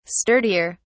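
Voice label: "sturdier", English, uk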